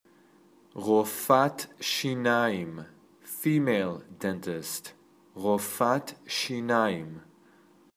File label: Female Dentist